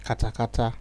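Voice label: "katakata", Nigerian Pidgin, male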